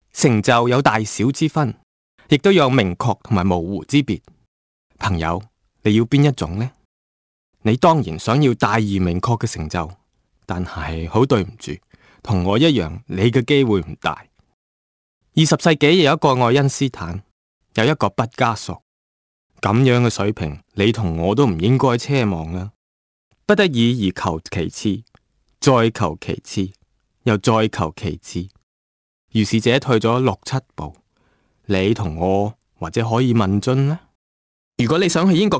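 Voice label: ZH Can General, Cantonese Chinese; GoLocalise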